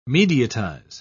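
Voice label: "mediatize", English, British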